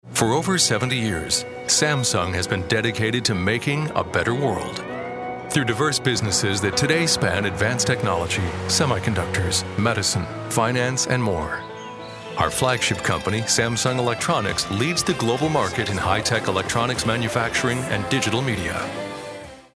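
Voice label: us corporate presentation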